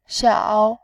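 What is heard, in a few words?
"xiao", Mandarin Chinese, tone 3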